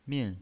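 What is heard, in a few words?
"min", pinyin voice, Mandarin Chinese, fourth tone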